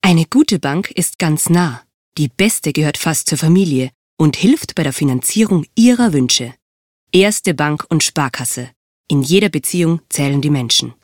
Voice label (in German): Werbespot; Erste Bank und Sparkasse